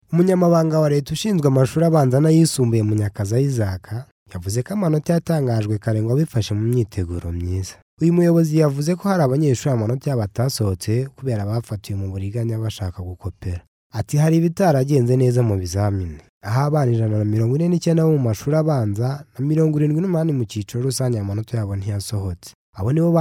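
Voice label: Kinyarwanda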